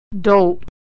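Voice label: "dolt", American English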